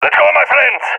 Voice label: request move, balkan; balkan epic